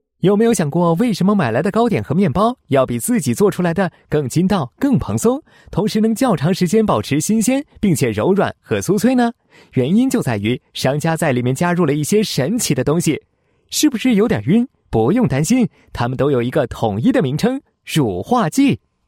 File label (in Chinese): 男 轻松; 轻松优酷剧; 乳化剂介绍; 飞碟说